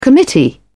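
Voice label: "committee", English, British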